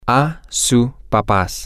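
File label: to your parents, spanish, male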